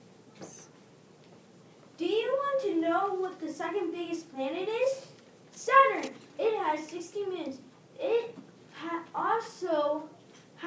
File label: commercial; kaden s galaxy tours